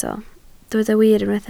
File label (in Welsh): "wir", Welsh